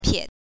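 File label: "pie", Mandarin Chinese, neutral tone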